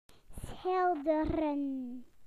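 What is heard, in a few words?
"to paint", British English; PictureDictionary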